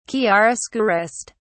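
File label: "chiaroscurist", British English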